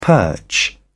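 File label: "perch", British English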